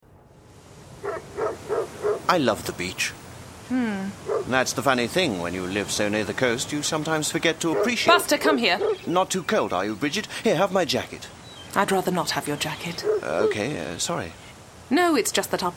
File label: Drama Reel